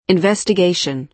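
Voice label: "investigation", American English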